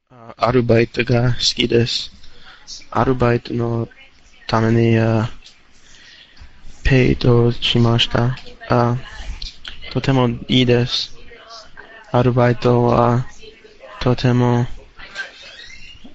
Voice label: Japanese Conversation